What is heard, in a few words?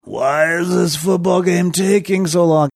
drawn out why is this football game taking so long